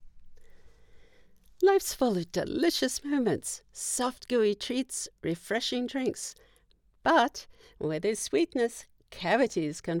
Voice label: TV Radio ad Toothpaste Commercial